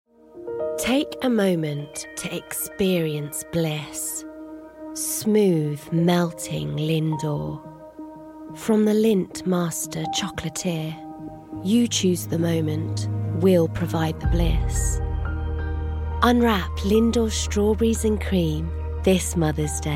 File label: british accent, english female voices